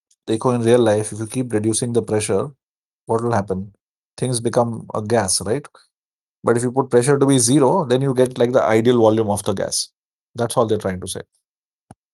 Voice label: voice message